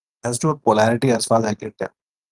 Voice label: voice message